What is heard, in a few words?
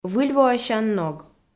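"выльвоасян ног", Komi, female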